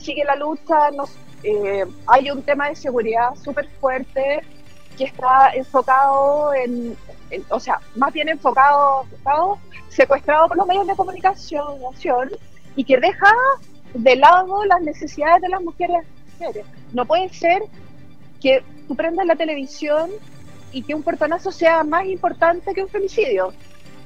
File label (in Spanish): cuña; marcha 8m